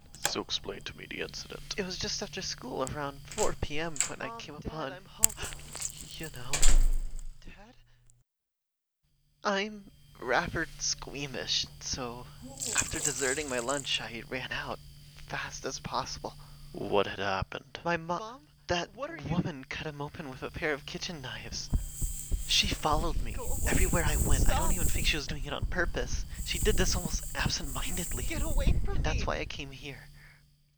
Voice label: audio narrative